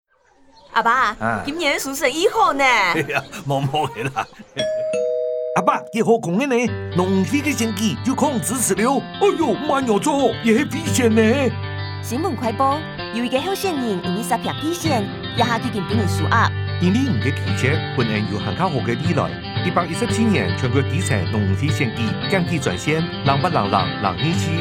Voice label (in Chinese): 反賄選宣導30秒廣播帶 客語; 114年度全國基層農漁會選舉法務部反賄選宣導30秒廣播帶; 農漁會選舉反賄選宣導－廣播; 客語版